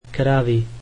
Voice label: slovak cows